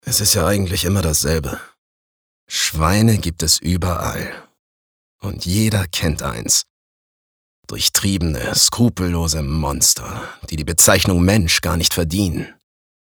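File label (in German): Schauspiel Cool; Schweine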